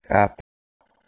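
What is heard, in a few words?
"kh2p", Proto-Indo-European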